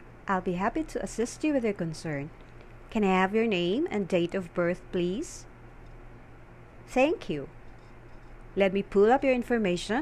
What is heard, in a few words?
Patient Call Center Sample